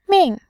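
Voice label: "min", Mandarin, tone 4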